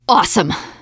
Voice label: taunt, teen girl; player; survivor; voice